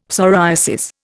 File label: "psoriasis", Indian; Dictionary